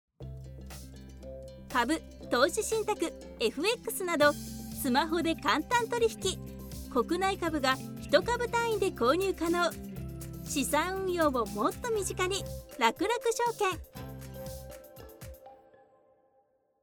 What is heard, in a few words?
japanese commercial; Japonais; Tokyo